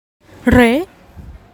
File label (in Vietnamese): "Rế", Tiếng Việt